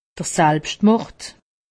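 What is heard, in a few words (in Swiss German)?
"selbstmord", Haut Rhin; medecine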